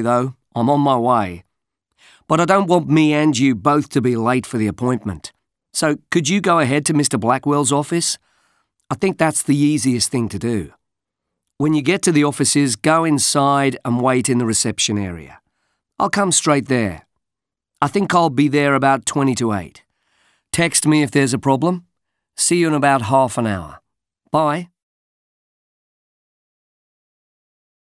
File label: Jack has left a voicemail message for his colleague